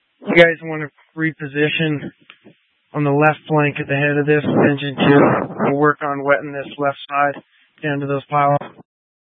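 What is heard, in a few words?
Incident Tac 2, Scanner audio recording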